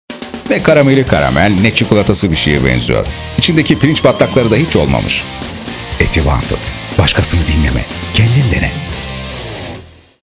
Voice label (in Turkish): dinamik